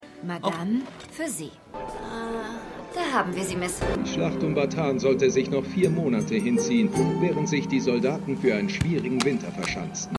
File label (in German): Kellnerin Empfangsdame Dokusprecher; TrueLies